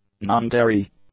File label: "non-dairy", english; adjectives